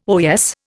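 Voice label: "oyez", Indian English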